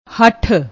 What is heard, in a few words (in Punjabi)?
"hatt", Punjabi; ਹਠ